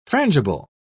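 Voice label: "frangible", English, British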